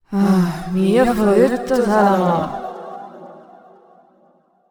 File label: VO fight running Out Of Patience; yami